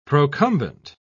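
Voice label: "procumbent", English, British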